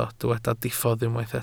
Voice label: "diffodd", Welsh